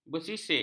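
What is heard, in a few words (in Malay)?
"bersisik", Malay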